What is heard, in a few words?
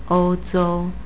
"ouzhou", Chinese; Europe = Ou1-zhou1